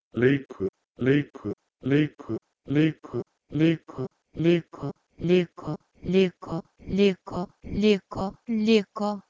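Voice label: Proto-Indo-European leikw to Lithuanian liko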